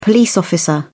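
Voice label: "police officer", British English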